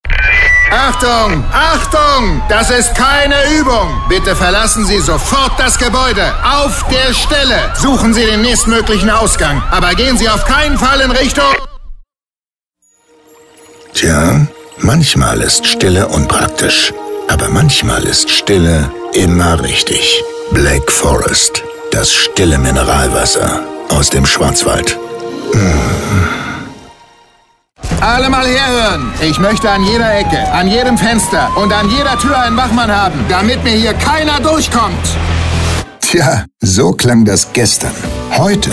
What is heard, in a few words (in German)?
telefonansagen sprecher; tommy lee jones